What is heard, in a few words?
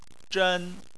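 "zhen", Mandarin Chinese, first tone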